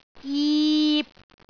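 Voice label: "jip3", Cantonese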